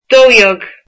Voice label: twelve, irish

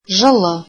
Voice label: warm, Kazakh

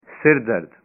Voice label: "headache", punjabi

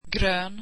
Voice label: swedish green

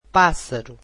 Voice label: "bird", portuguese

